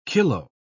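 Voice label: "kilo-", British English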